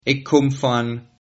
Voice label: I'm from, Sylt Frisian